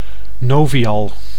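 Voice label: "Novial", Dutch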